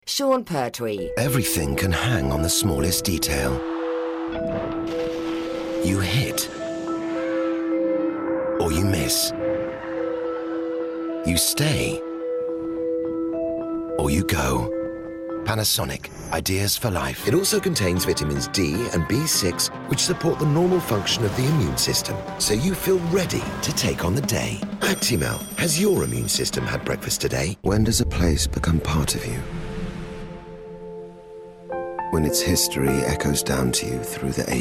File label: commercial